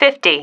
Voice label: "fifty", female